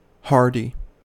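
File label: "hardy", US English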